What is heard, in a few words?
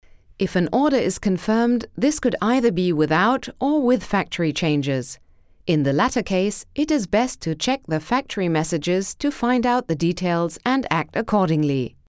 e-learning; daimler